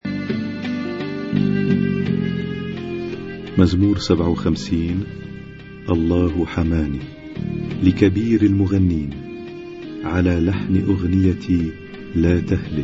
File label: Arabic Psalm Zaboor